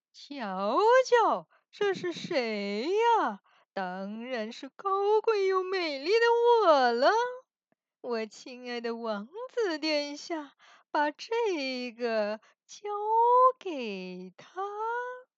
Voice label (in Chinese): 角色女巫